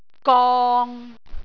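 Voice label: "gong6", Cantonese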